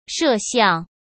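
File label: "摄像", Mandarin Chinese; chinese-flashcards; hsk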